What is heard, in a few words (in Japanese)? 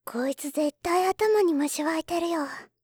こいつ絶対頭に虫わいてるよ, 罵倒